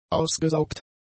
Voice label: "ausgesaugt", German; adjectives